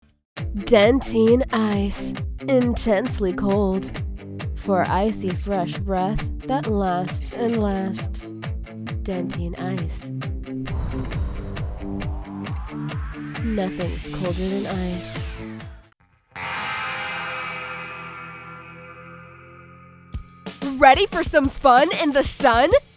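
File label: Commercial, voice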